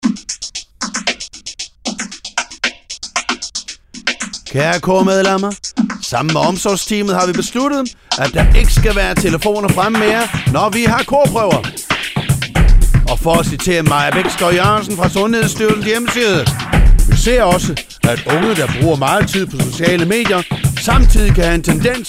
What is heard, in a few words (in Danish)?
Glad drama med speak; backstage dramastykket